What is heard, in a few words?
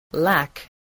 "lack", English